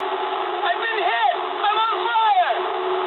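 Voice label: English on fire mood low; War Thunder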